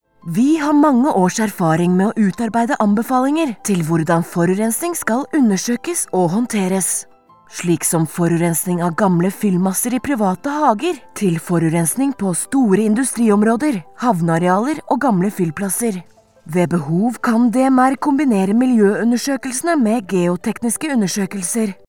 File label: Norsk